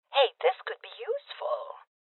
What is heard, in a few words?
Voices Loot Collect Female Old